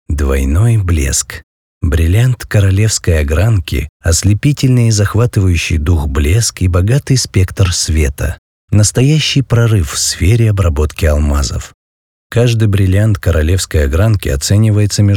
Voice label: russian corporate videos